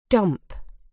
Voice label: "dump", uk